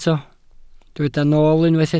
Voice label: "nool", Welsh